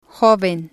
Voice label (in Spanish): "joven", spanish